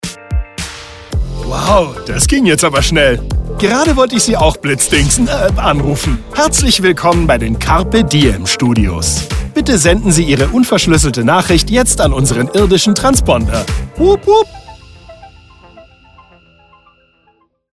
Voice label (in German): AB Ansage; Will Smith